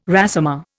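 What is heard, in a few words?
"résumé", Indian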